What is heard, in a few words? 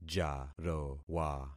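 "jarowa", concatenated syllables; Affixing experiment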